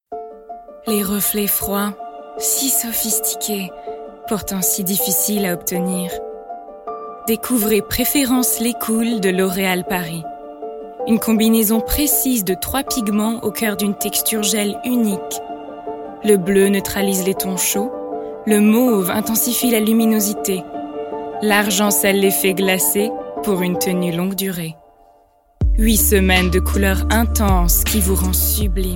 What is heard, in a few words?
French